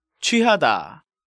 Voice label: "Chwuihada", Korean